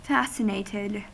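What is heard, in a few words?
"fascinated", American English; pronunciations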